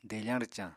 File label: "defañ-rwcañ", Apatani